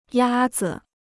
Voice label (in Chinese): "鸭子", Chinese